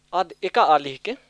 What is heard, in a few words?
Kurux; aad eka aali hike?; Who is she?